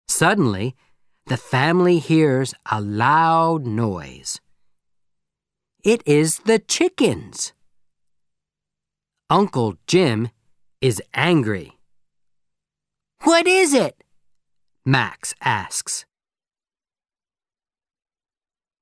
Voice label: Audio American English